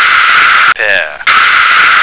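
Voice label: "pear", American English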